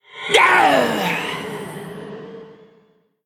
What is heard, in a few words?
Female Elemental Death